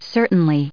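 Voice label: "certainly", US English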